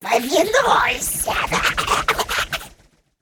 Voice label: Female Wasp Attack; Принцесса Хухуран; Hearthstone: датамайн обновления 5.0

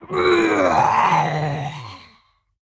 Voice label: Female Bear Death

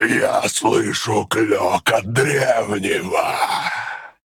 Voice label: Male Arakkoa Play

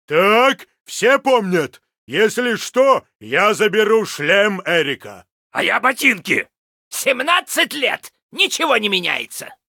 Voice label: Pissed; LostVikingsBase

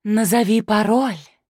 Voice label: Female Human Play

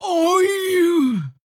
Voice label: Female Orc Death; Hearthstone; датамайн обновления 5.0

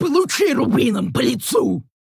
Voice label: Female Orc Attack

